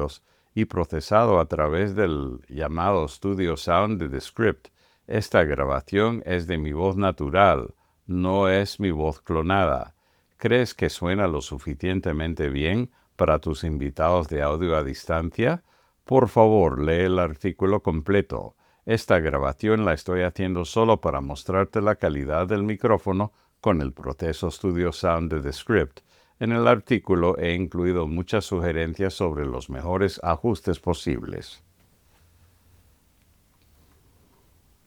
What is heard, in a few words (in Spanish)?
Apple wired USB-C EarPods castellano; invitados remotos; Studio Sound de Descript; postproduccion